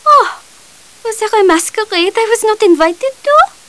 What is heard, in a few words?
chat; maeve